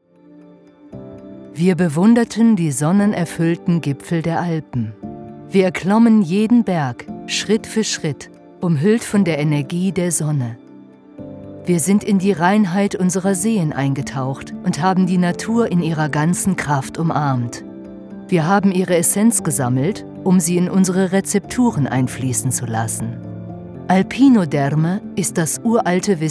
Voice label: Suncream Commercial

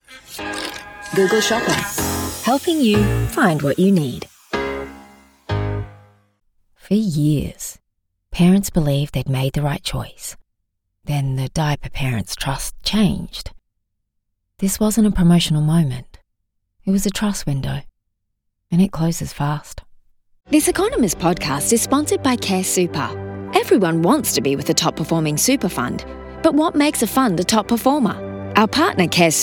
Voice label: Commerical

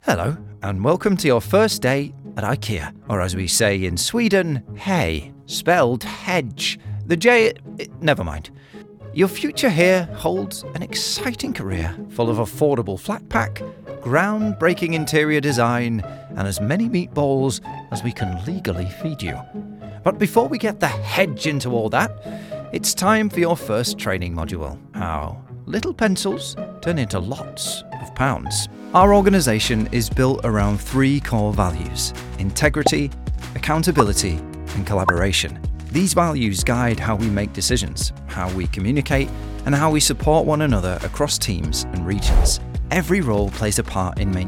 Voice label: e-Learning

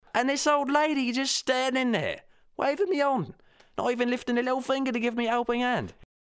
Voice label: Accent Character Cockney

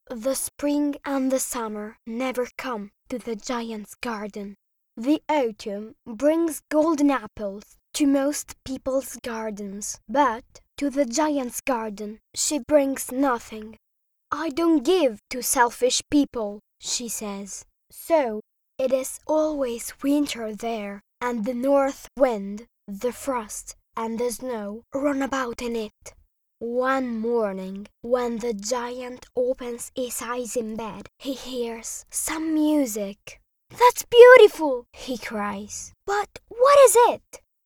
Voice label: English Vocal Sample

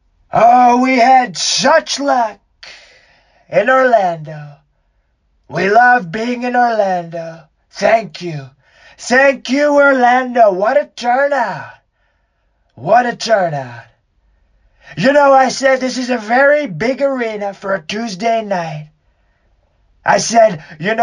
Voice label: Impersonation; Donald Trump

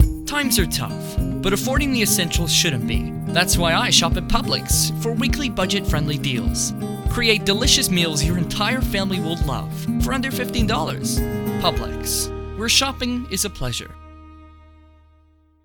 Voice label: AMERICAN; Publix

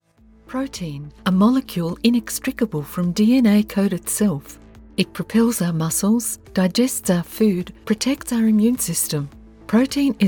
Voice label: Medical Narration; Bigmouth

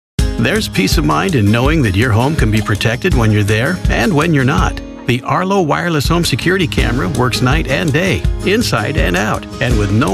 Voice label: Commercials, en-NZ

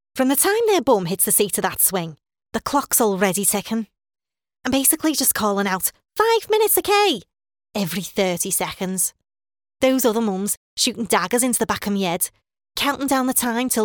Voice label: Scouse accent

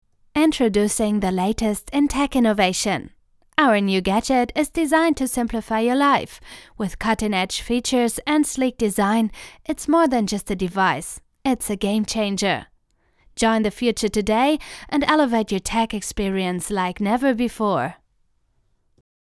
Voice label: Commercial EN

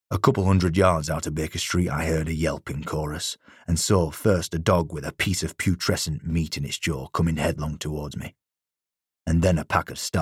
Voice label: Audiobook

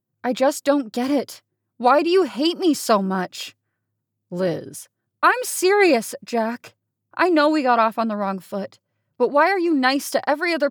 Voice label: Audiobook Narration, en-NZ

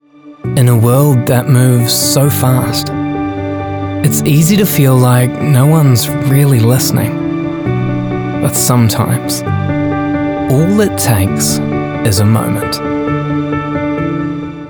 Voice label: NZ Commercial

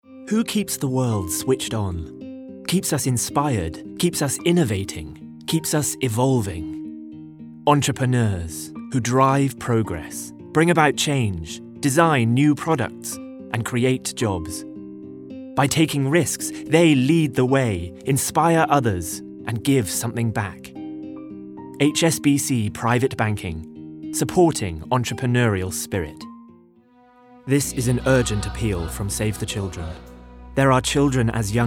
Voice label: Voice Actor; BigMouthVoices

